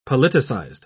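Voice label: "politicized", British English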